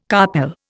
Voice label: "carpel", Indian